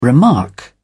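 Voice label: "remark", British English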